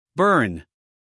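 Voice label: "burn", American English, male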